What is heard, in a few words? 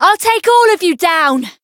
chant